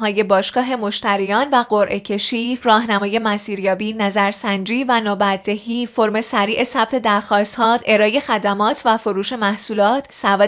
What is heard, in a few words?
voice ussd